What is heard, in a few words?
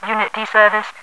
unit deserviced, radio voice; hl2